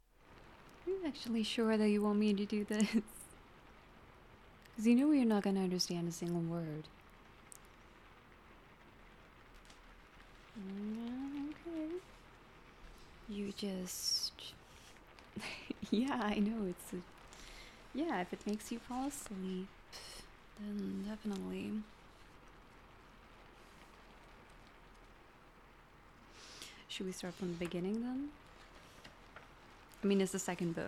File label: Rain, sleepaid with reading swedish, ASMR